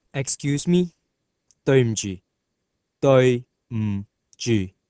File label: Cantonese Excuse